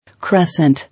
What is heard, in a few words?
"Crescent" English